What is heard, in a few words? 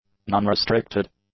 "nonrestricted", english; adjectives